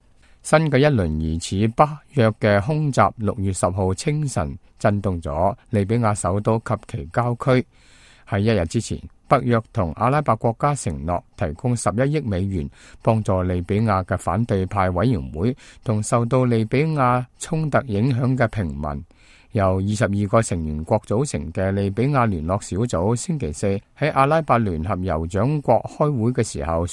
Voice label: cantonese, news